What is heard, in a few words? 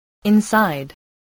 "inside", voice spelling